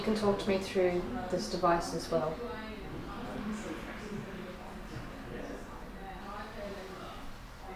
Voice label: EVP Hello; private investigation manly west